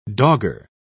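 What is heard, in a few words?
"dogger", British English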